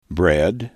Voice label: "bread", english